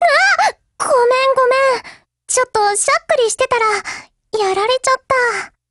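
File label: BREAK, Japanese; GF Alarm; M1873Mod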